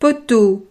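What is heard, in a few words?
"poteau", French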